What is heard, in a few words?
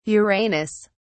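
"uranus", British English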